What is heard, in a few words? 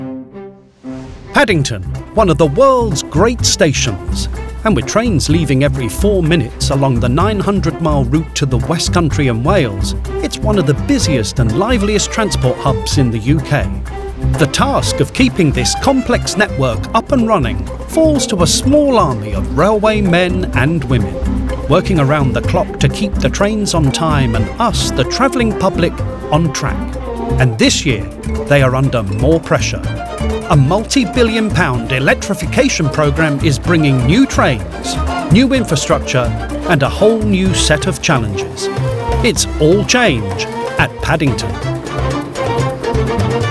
documentary, voice artist